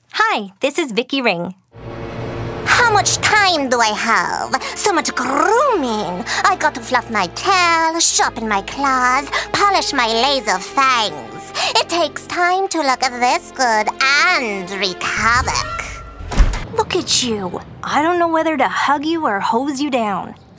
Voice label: Animation